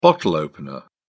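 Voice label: "bottle opener", British English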